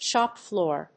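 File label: "shop floor", English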